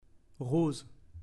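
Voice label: "rose", French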